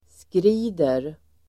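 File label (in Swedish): "skrider", Swedish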